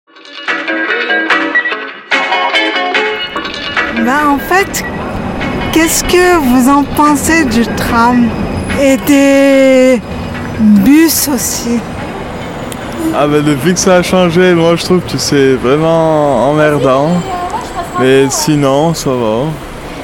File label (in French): jeune homme